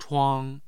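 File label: "chuang", Mandarin Chinese, tone 1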